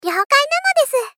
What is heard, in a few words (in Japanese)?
Moe Accept Ryokai nanodesu; Rei Yumesaki